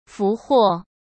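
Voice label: "俘获" Mandarin Chinese; hsk; chinese-flashcards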